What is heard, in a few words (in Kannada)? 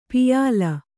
"ಪ್ಯಾಲೆ", Kannada